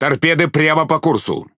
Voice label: Speech Alarms Torpedo Ahead, RU; World Of Warships